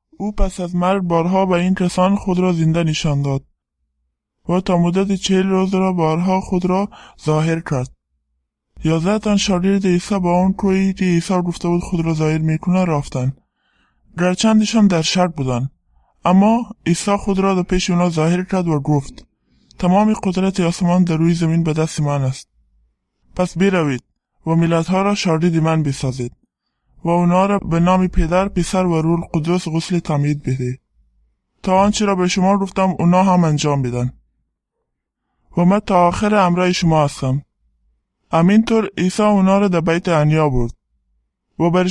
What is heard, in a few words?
dari, bible stories; go amer bozerg behtarin amer